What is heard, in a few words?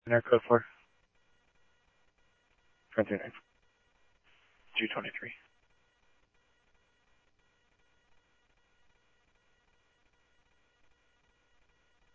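Mesa police department, scanner audio trunk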